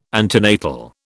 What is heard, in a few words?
"antenatal", British